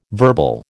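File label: "verbal", American English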